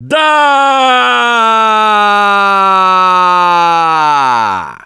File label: Battle Cry; Gambler